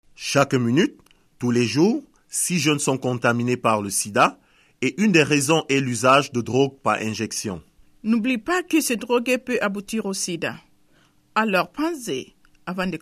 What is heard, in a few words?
spot french